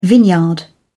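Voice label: "vineyard", English, British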